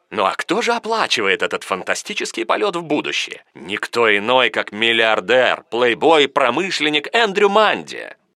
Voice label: narrator